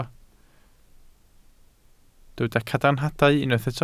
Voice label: "cadarnhadau", Welsh